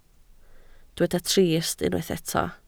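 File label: "trist" Welsh, spoken word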